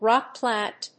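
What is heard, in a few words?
"rock plant", English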